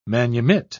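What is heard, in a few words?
"manumit", English, British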